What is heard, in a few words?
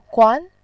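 "guan", Mandarin Chinese, second tone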